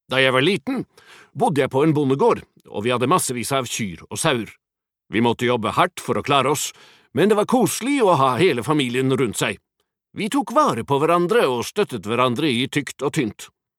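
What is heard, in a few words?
Norwegian dub man, male; norsk; mann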